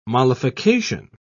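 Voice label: "mollification", British English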